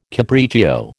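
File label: "capriccio", American English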